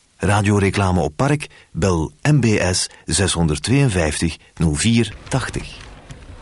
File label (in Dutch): reclame; Park